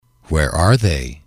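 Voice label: where are they, male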